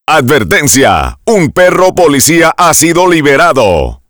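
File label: K9 Deployed, Spanish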